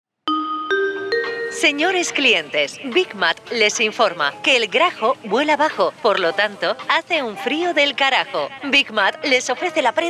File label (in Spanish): Cuña Chaqueta CASTELLANO; BIG MAT SOCIOS CASTELLANO